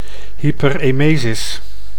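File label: "hyperemesis", Dutch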